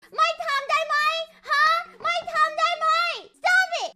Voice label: thai rage; biboo